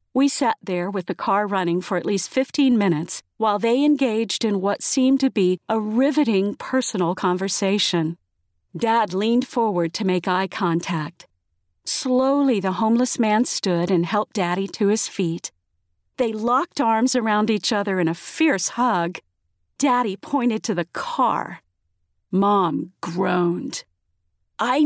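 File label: American English, female, voice over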